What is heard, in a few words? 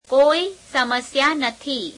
gujarati, no problem; negation + noun; કોઈ સમસ્યા નથી; [keoī semese'yeā netheī]